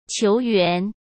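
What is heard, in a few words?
"球员", Mandarin Chinese; hsk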